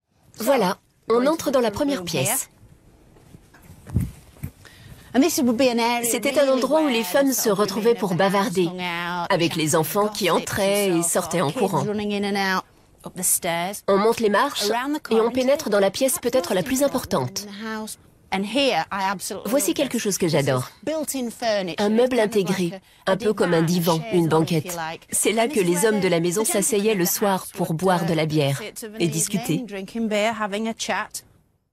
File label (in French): VOICE OVER Naturelle